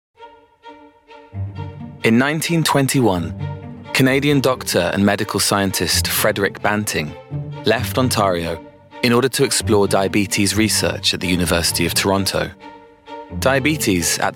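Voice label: documentary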